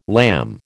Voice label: "lamb", American

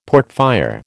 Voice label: "portfire", American

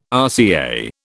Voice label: "RCA", British

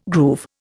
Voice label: "groove", Indian

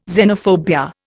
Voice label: "xenophobia", Indian

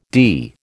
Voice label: "dee", American

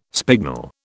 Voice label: "spignel", British

